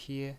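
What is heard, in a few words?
"pie" first tone, pinyin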